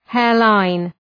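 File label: "hairline", English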